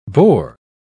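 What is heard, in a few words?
"boar", English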